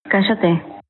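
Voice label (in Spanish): "callate", Spanish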